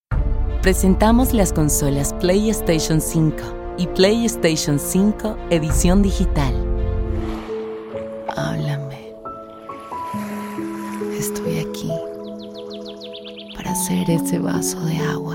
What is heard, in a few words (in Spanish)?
Narracion